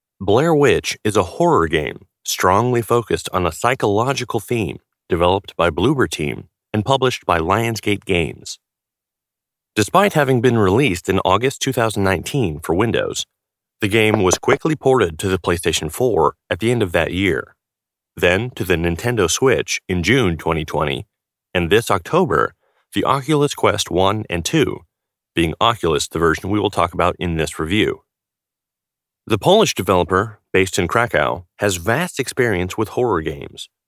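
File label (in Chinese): 外籍男英_外语_美式英语_自然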